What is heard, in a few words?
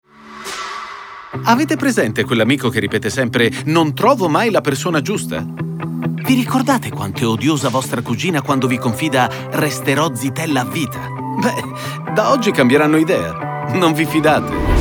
Ironic Smart